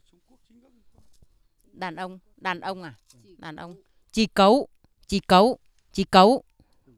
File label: male